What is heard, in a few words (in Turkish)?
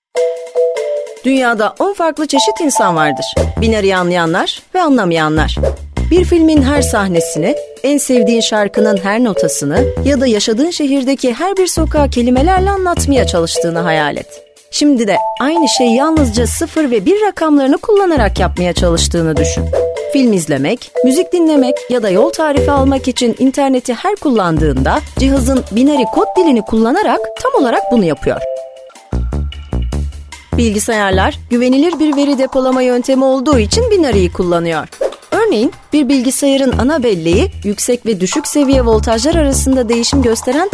tanitim